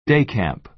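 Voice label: "day camp", American English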